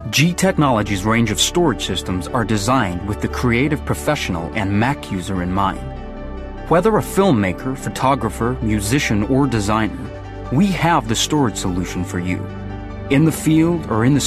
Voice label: American; G Tech